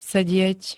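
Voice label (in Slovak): "sedieť", Slovak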